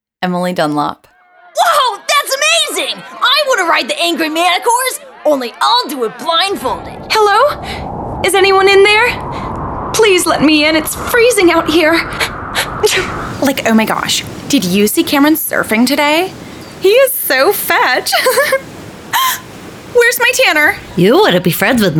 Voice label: voiceover, character, women

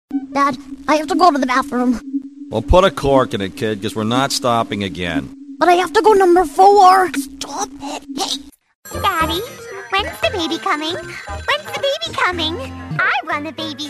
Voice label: voiceover, character, women

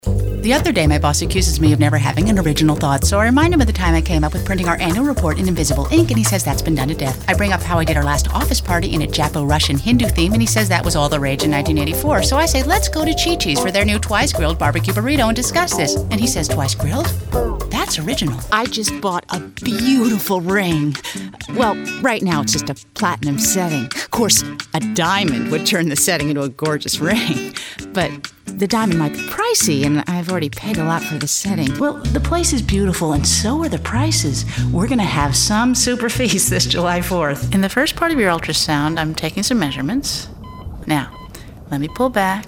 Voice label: F Commercial, voiceover womens

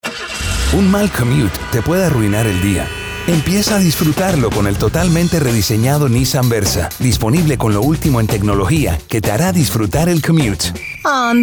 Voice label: SPANISH, voiceover mens

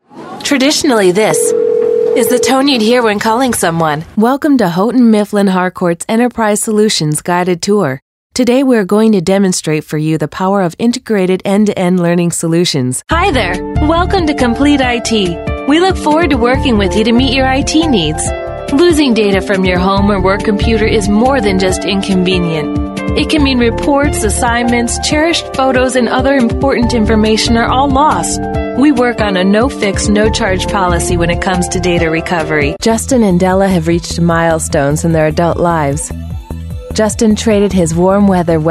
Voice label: narration, women

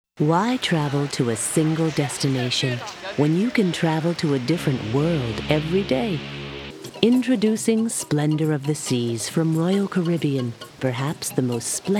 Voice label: voiceover narration women

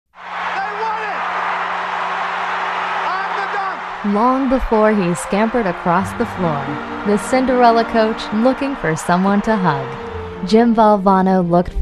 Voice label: voiceover narration women

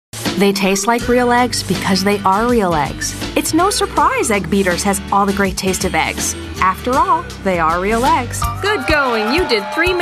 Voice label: F Commercial

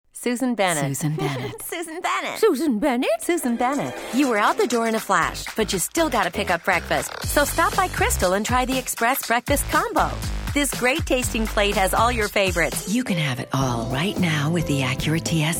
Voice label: F Commercial; voiceover womens

